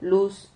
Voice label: "lus", Silabas

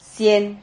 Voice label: "sien", silabas

